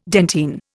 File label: "dentine", Indian